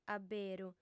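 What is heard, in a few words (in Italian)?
"aberu", sarda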